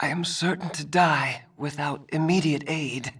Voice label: Male; baldurs1